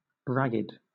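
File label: "ragged", British English; pronunciations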